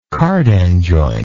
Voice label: "cardan joint", English

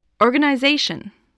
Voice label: "organization", English voice

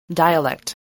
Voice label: "dialect", spelling

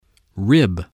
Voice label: "rib", English

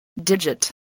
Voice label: "digit", english spelling

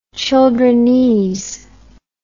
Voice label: "childrenese", English

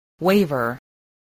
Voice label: "waver", spelling

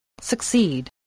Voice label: "succeed", spelling

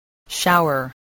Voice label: "shower", voice spelling

